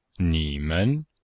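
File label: "ni3 men5", Mandarin Chinese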